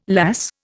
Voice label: "lass", Indian

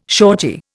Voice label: "shortie", Indian

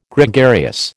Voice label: "Gregarious", American

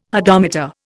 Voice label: "hodometer", Indian

